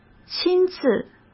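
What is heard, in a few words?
"亲自", Mandarin Chinese; chinese-flashcards; hsk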